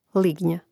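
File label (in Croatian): "lignja", Croatian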